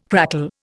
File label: "crackle", Indian